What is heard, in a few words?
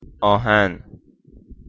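"aahan", Persian, male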